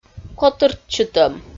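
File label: "котыртчытӧм", Komi, female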